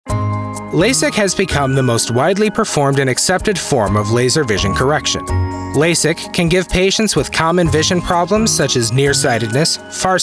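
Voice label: Medical, male voice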